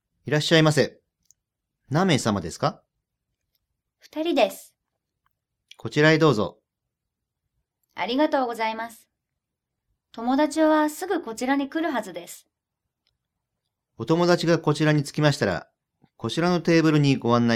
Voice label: restaurant, Japanese